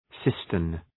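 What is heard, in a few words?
"cistern", English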